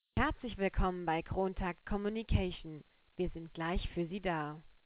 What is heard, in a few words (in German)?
Telefonansage